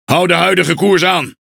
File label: Speech Pilots Torpedo Airborne, NL; World Of Warships